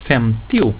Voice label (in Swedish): "femtio", swedish; getal